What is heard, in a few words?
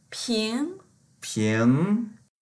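"ping2", Mandarin Chinese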